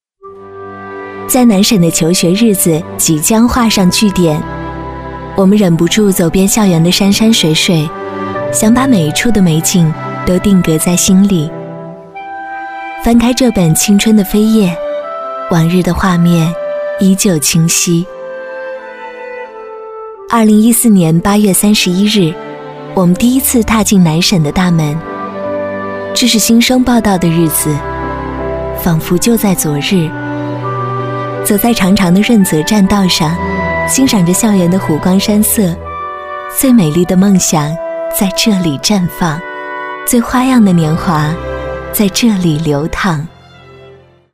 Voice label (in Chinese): 女 专题 学校 毕业典礼 温柔; 审计大学